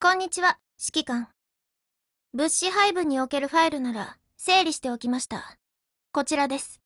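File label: HELLO Japanese, Voice; GF Alarm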